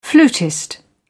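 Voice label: "flutist", British English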